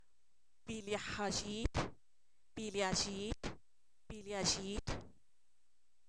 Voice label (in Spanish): gusano, Zapoteco; animales; INALI